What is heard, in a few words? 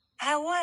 "haua", short vowels sukuun